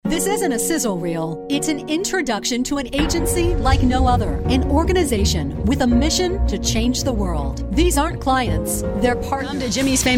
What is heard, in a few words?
Commercial